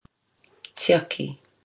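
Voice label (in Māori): "tiaki", Māori word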